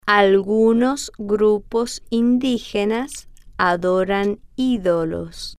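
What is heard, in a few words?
algunos Grupos Indigenas Adoran Idolos, Spanish, female, slow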